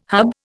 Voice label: "hub", Indian